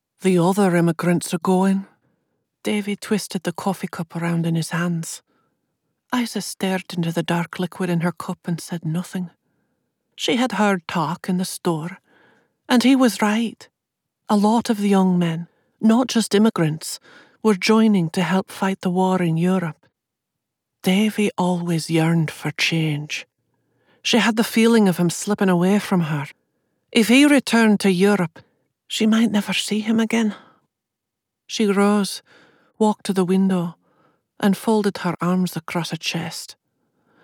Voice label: Historical Fiction 3rd Person Scottish Accent MF Dialogue